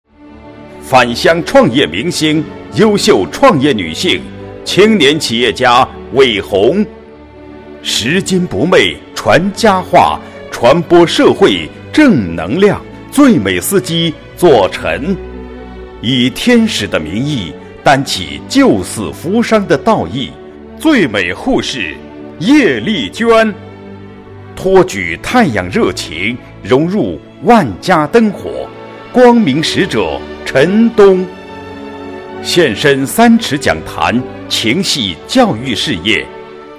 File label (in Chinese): 专题杰出青年颁奖词雄浑大气配音